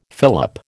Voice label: "fillip", American